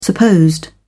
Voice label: "supposed", en uk

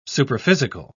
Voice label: "superphysical", en uk

